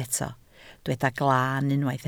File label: "glaan", Welsh